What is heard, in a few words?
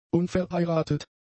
"unverheiratet", German; adjectives